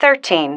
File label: "thirteen", female